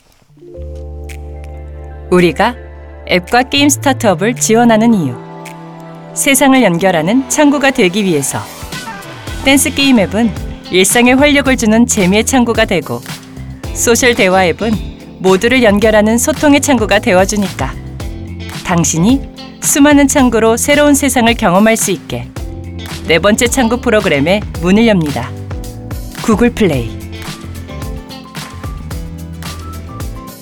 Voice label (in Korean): 밝은 30대; 구글플레이